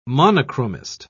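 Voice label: "monochromist", English, British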